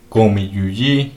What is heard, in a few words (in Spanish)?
Cuatro piedras de comal, Mixteco